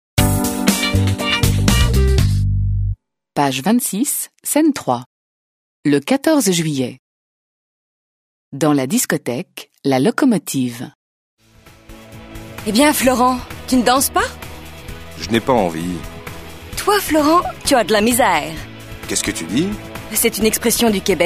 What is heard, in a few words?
French